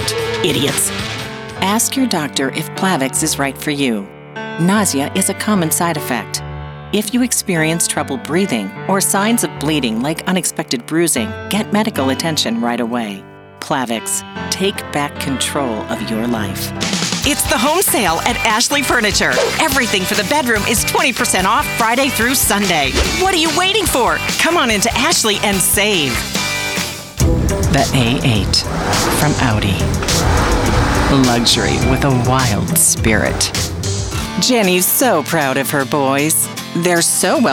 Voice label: Commercial